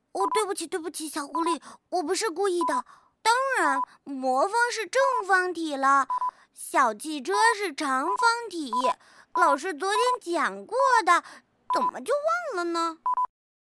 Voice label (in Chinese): 女 游戏动漫 小猴子 正太音